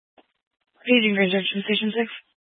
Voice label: Fire Dispatch, scanner